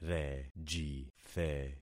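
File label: "rejithe", concatenated syllables; Affixing experiment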